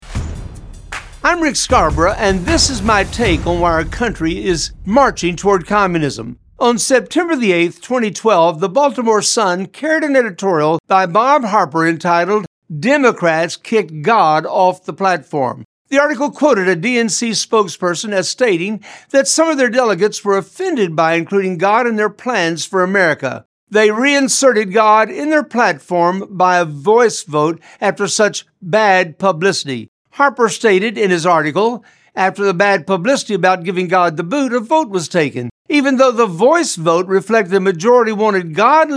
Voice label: Radio Spot; Communism